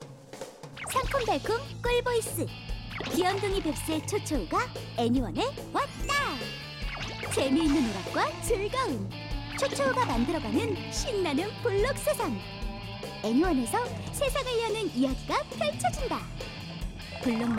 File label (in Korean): 하이톤 밝은 분위기; 블록마스터쵸쵸우TV